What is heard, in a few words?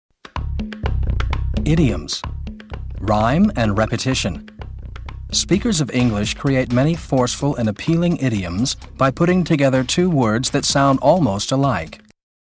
usa man